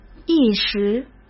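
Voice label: "一时", Mandarin Chinese; hsk; chinese-flashcards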